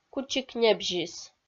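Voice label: "кучик небзис", Komi, female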